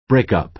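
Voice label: "breakup", American English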